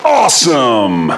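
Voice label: "Awesome", English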